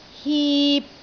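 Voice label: "hip3", Cantonese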